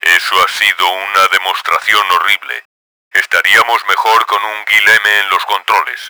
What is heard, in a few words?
angry, Spanish; kramer; Battle Engine Aquila; XBOX